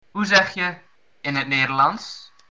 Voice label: how to say, dutch